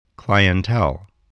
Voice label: "clientele", voice

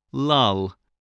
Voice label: "lull", spelling

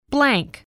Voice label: "blank", spelling

